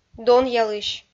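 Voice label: "донъялысь", Komi, female; kpv audio dict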